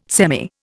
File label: "semi", Indian